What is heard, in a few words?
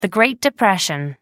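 "great depression", British English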